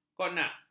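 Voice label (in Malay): "kognat", Malay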